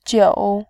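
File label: "jiu", Mandarin, third tone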